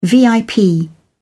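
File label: "vip", English, British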